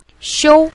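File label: "xiu", Mandarin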